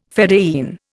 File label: "fedayeen", Indian